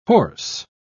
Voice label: "horse", pronounce